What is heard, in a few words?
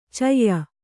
"ಛಾಯ", kannada